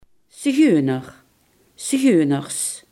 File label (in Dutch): "zigeuner", limburgse